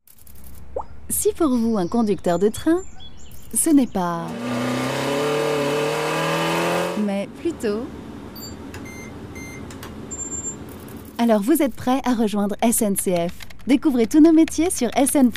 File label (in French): Voix off; SNCF